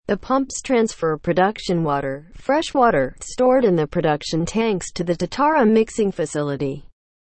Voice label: english, seawater desalination; watercompany